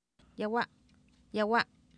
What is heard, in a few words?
yAwA, Oapan minimal pairs female; Oapan minimal pairs 1998